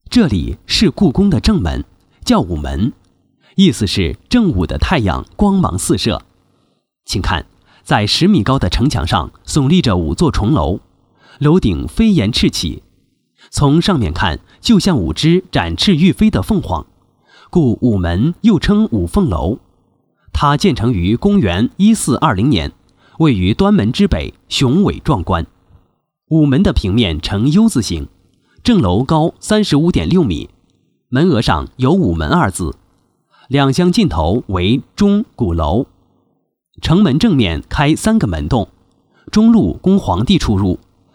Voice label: 男 故宫午门导游词解说

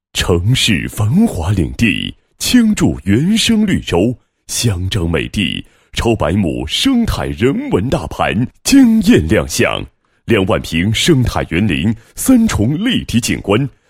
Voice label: 男 房产, 配音; 新洲香樟美地 城市繁华领地 倾筑原生绿洲

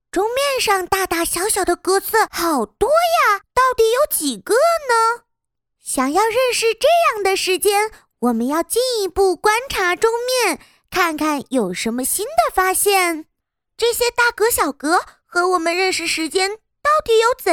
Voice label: 女, 童声; 认识时间

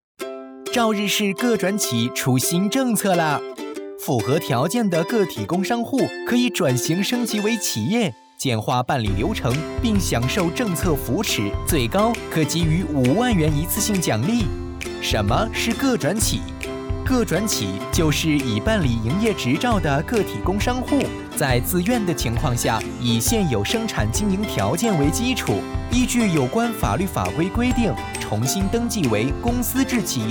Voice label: 配音版; 个转企

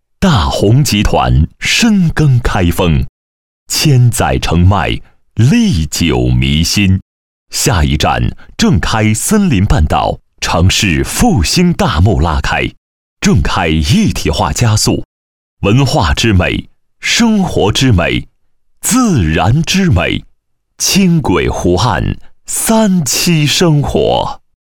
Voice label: 男2 地产广告, 国语男声配音; 靓仔专题; 森林半岛

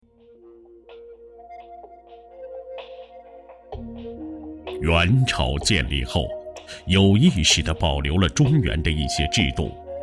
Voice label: 男 历史纪录; 央视大气专题; 元朝风云

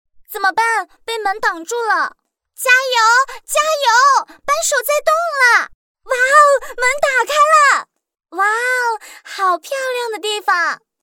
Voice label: 女, 角色, 小女孩; 方言

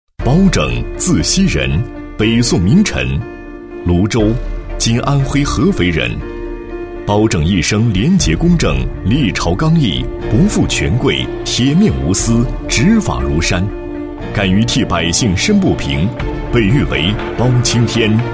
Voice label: 男-人物; 历史人物包拯介绍

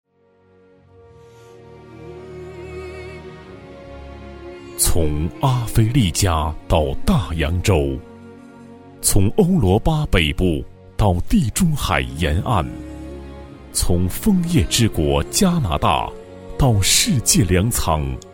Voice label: 男 广告, 国语男声配音 磁性; 农业高新科技成果博览会宣传简介

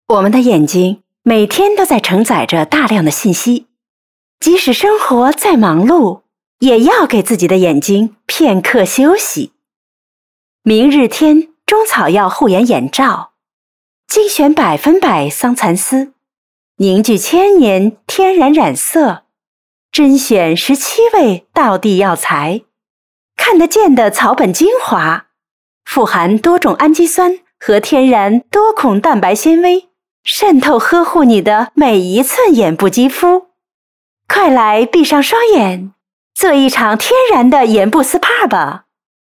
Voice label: 女 广告 甜美清新

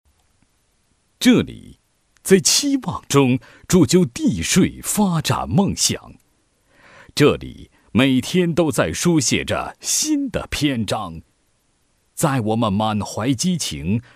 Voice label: 男 税务 政论专题片大气干声, 国语男声配音 浑厚低沉; 渝中

